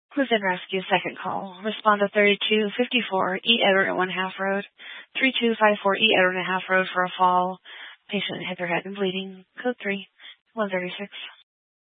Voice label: Grand Junction Fire Department Dispatch

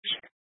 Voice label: Sheriff Dispatch, scanner; Mesa County

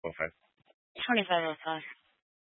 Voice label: Mesa County Sheriff Dispatch

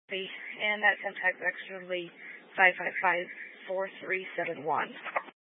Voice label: Sheriff Dispatch, scanner; Mesa County

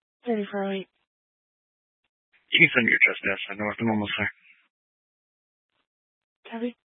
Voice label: Police Dispatch, scanner; Police 1 Dispatch

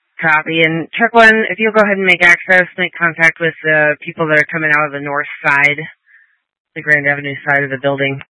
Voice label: Tactical Incidents, scanner

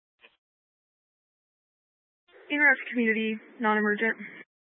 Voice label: Fire Department Dispatch

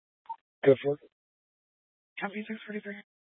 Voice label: Police 1 Dispatch

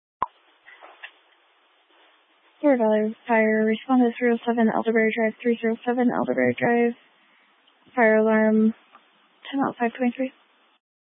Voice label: Fire Dispatch, scanner

